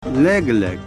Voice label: "a little", Pashto